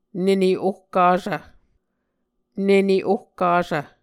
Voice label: "nene ohkasv", Muscogee